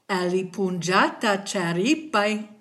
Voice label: have a nice day, kichwa

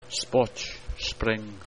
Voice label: "spotch", spring

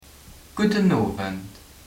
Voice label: good evening, luxembourgish

